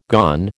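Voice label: "gone", American English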